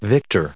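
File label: "victor", US English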